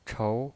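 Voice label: "chou", Mandarin Chinese pinyin voice, second tone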